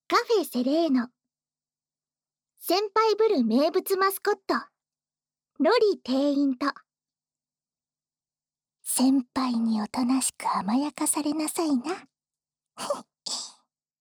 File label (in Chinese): 标题朗诵